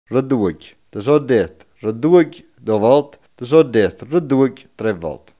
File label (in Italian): "olio", coratino; ŎLĔU